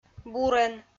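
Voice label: "бурӧн", Komi, female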